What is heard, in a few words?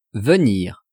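"venir", French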